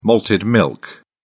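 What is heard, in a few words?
"malted milk", English, uk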